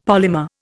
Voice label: "polymer", Indian; Dictionary